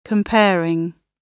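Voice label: "comparing", British English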